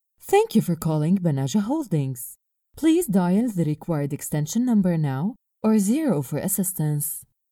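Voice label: Arabic, female, English IVR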